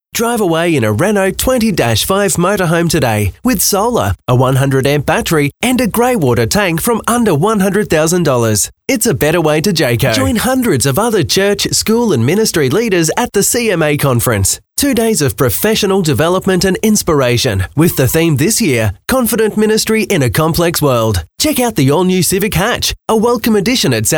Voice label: english australian promos